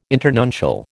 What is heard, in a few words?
"internuncial", American